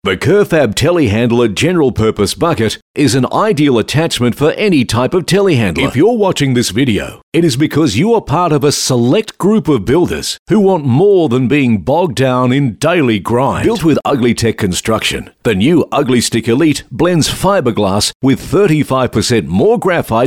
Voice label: Corporate